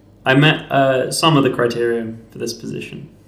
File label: interviewee male